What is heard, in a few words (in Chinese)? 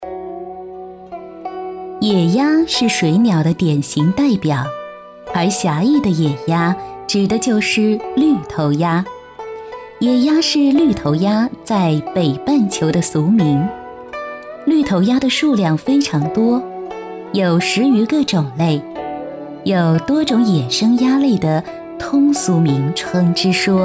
女 广播; 飞翔的绿头鸭